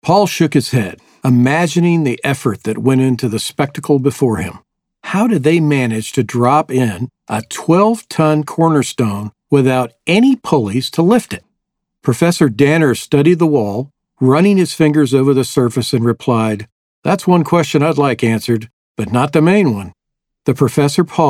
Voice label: Audiobook Adventure Fiction